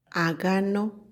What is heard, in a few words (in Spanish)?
"mujer", Chontal; INAH